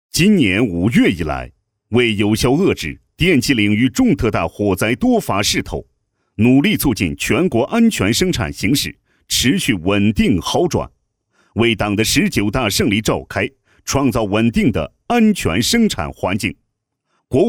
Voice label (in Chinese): 解说词配音; 火灾系统介绍